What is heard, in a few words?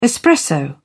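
"espresso", British English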